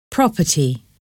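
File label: "property", English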